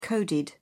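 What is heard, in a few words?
"coded", English, British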